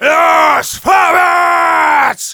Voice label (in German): battlecry; Demoman